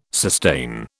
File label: "sustain", British